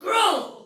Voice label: Attack Normal Soldier Female, Battle Barks; Dialog; Sinecure

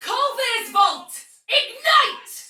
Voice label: Attack Heavy Tech, Soldier Female, Battle; Dialog; Barks; Sinecure

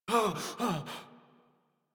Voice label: Operator Voice; Operator Mission; Generic Land

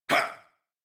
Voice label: Abil Jump, en; Warframe; New War; Teshin

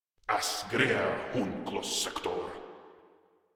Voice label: Patrol Grineer Male, Barks, Battle

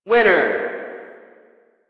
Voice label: Arcade Voices

